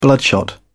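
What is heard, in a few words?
"bloodshot", British English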